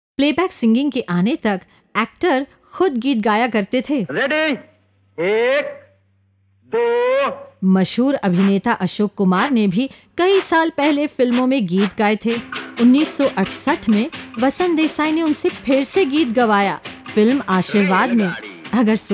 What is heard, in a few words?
voice over and rail gaadi